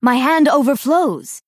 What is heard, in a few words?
Female Dryad ERROR